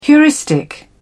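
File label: "heuristic", English, British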